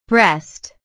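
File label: "breast", English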